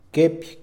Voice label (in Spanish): "macana", Mixe, hombre